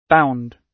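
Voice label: "bound", British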